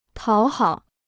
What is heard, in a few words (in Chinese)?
"讨好", Chinese